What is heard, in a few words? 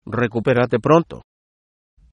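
get well soon, Spanish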